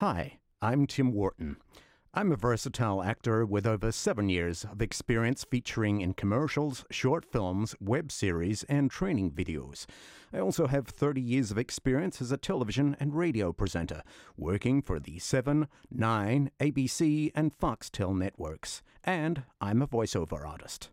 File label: American Voice